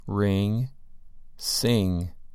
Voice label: "ring" "sing"